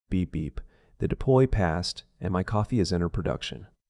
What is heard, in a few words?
Grounded and practical, with a balanced delivery that stays out of the way.; groundedpracticalbalanced